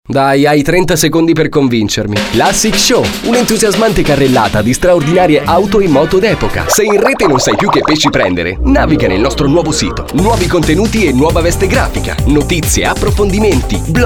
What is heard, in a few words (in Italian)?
speaker maschile